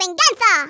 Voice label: revenge, Hispanic; Worms Armageddon